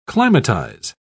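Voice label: "climatize", British English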